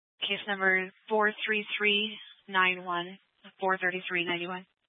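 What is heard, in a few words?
Police 1 Dispatch, Scanner audio recording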